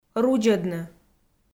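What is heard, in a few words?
"рудзӧдны", Komi, female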